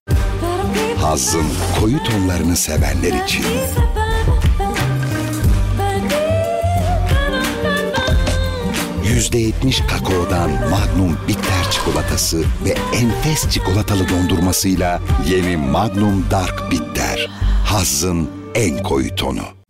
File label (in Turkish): animatik enerjik tempolu, seslendirme